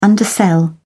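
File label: "undersell", English, British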